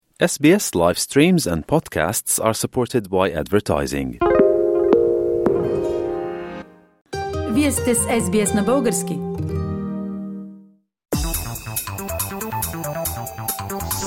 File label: bulgarian; sbs